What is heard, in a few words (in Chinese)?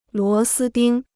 "螺丝钉", Chinese